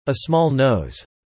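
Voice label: "a small nose" spoken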